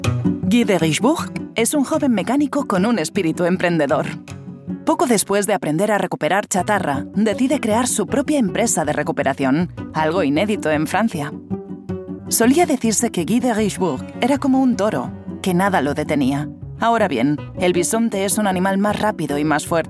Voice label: Catalan corporate Spanish